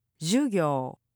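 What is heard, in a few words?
"jugyou", Japanese; NewNakama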